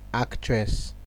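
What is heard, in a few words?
"actress", Nigerian Pidgin, male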